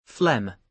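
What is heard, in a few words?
"phlegm" English, British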